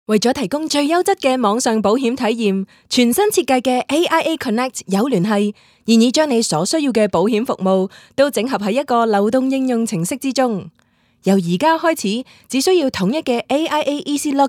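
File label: woman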